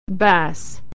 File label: "bass", American English